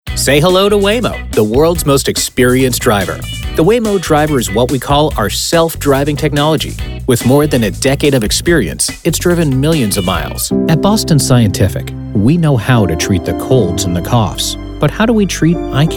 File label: Corporate narration